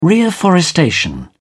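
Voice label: "reafforestation", British English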